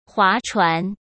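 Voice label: "划船", Mandarin Chinese; hsk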